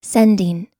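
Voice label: "sending", American English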